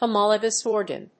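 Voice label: "homologous organ", English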